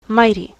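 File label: "mighty", US English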